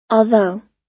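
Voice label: "although", American English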